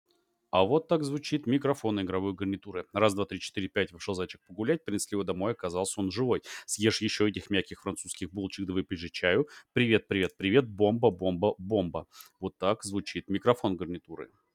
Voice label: mic test